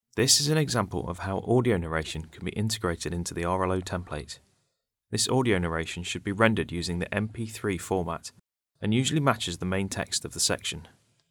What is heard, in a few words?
sample narration; 5. CORE-O-Optimising Consultations for Personalisation, Preferences and Flexibility